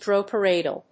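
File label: "droperidol", English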